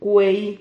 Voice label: "cuei", Silabas

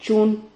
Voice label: "chun", Silabas

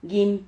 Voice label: "guin", Silabas

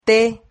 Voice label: "the", Silabas

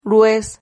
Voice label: "ruez", Silabas

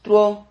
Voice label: "truo", Silabas

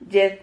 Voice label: "yed", Silabas

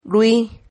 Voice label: "rui", Silabas

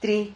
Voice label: "tri", Silabas

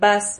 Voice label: "vaz", Silabas

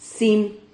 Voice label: "cim", Silabas

